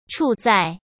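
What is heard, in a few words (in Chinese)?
"处在", Mandarin Chinese; chinese-flashcards; hsk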